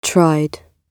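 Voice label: "tried", British English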